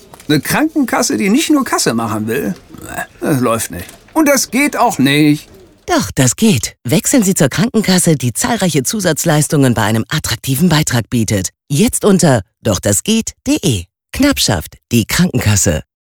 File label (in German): Werbung; Knappschaft Die Krankenkasse